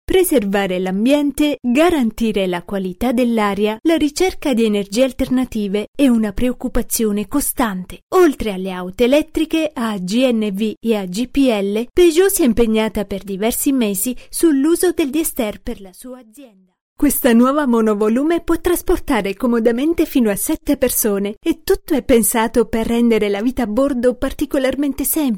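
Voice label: Italian, female, voice over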